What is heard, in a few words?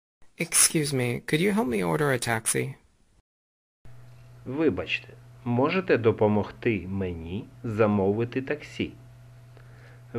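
Ukrainian, Excuse me could you help me order a taxi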